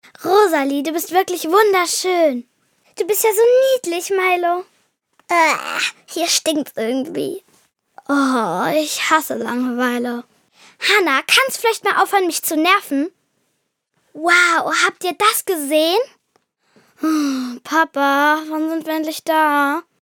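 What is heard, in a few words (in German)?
emotionen, Kindersprecher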